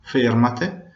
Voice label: stop, Venetian

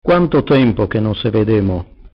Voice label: long time no see, venetian

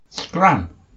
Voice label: "go away", English

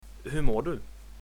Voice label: how are you, swedish

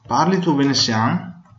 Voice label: do you speak, venetian; Pàrlitu venesian?

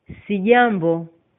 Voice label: fine, swahili

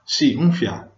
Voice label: yes a little, venetian

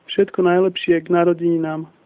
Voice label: birthday, slovak

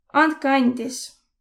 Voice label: excuse me, voro

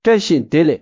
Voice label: hello, Tibetan; བཀྲ་ཤིས་བདེ་ལེགས།

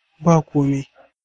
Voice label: youre welcome, hausa

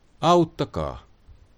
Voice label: help, finnish

